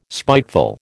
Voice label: "spiteful", American

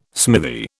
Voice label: "smithy", British

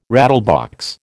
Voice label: "rattlebox", American

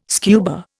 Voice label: "scuba", Indian

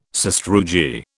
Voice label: "sastrugi", British

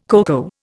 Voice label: "coco", Indian

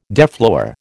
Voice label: "deflower", American

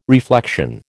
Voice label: "reflection", American

